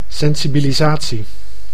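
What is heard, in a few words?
"sensibilisatie", Dutch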